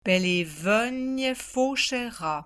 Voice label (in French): prononciation patois vaudois